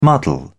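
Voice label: "muddle", British English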